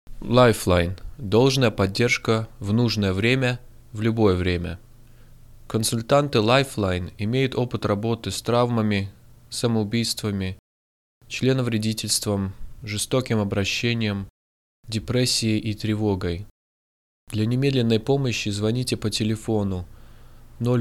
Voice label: Russian recording